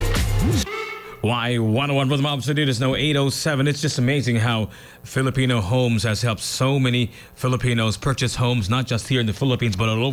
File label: radio ad